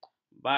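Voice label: "badar", Malay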